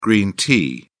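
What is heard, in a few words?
"green tea", British English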